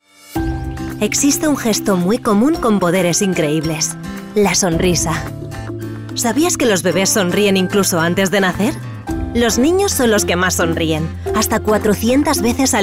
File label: female spanish food brand comercial